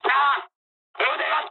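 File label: Japanese damaged pilot mood high; War Thunder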